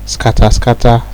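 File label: "scatterscatter", Nigerian Pidgin, male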